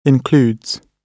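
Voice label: "includes", British English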